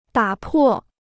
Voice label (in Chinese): "打破", Chinese